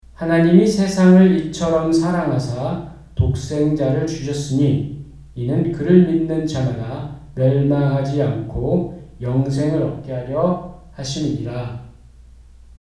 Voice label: Speaking After Treatment; 뉴욕새교회